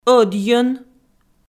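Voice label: "ӧдйӧн", Komi, female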